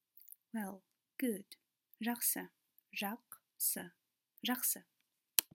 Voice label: Well good, kazakh